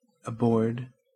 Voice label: "aboard", us